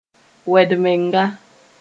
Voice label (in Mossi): "wed-menga", moore